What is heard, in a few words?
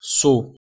"su", Proto Germanic